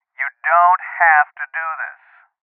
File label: Voices Postpone Male